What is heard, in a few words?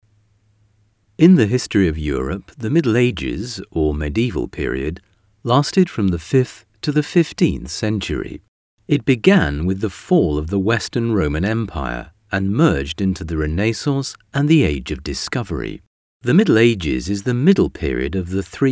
Audio Guide